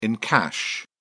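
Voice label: "encash", British English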